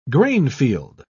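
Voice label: "grainfield", English, British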